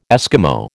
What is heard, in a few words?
"Esquimau", American